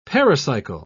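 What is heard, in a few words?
"pericycle", British English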